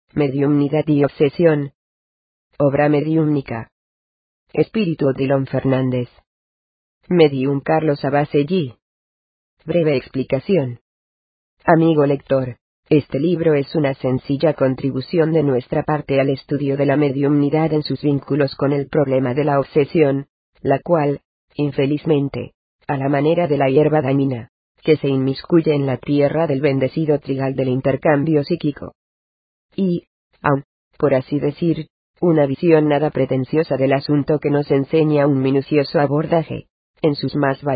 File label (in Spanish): AUDIOLIBRO; MEDIUMNIDAD Y OBSESION